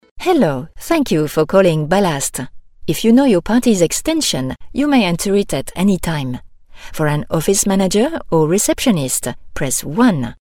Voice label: FRENCH

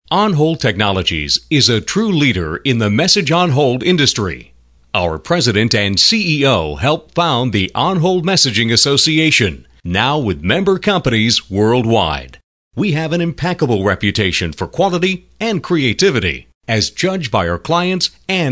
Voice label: onhold messaging

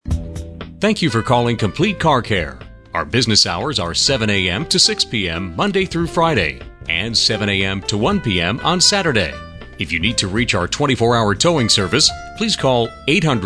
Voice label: auto attendant

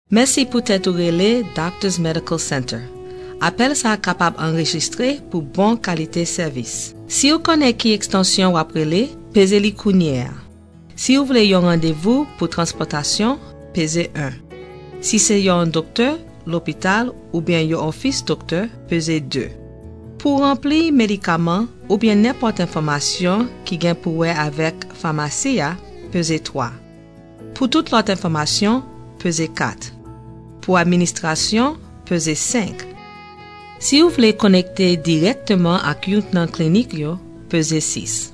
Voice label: Creole

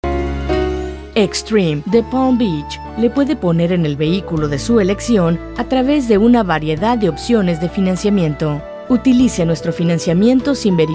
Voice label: Spanish

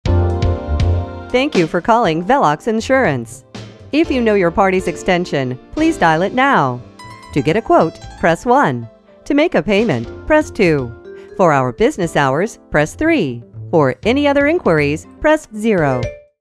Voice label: auto attendant

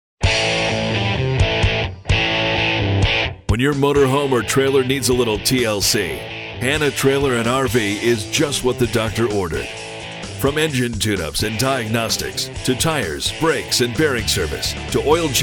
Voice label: powerful tone

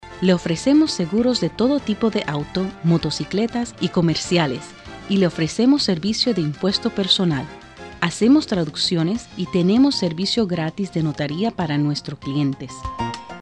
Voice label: Spanish

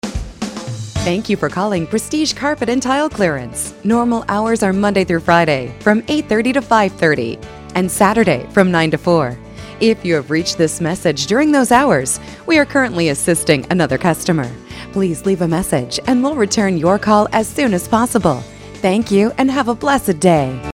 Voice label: Voicemail

